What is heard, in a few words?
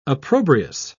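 "opprobrious", British English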